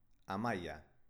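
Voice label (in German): "amaia", baskische Mädchennamen, Aussprache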